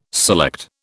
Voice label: "select", British